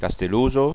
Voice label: "castelos", Occitan

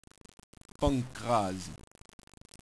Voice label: "pancrasi", Occitan

